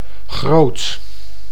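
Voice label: "groot", Dutch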